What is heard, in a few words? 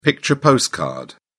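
"picture postcard", British English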